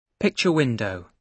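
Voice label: "picture window", British English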